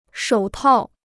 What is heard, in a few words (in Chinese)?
"手套", Chinese